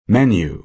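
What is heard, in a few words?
"menu", American English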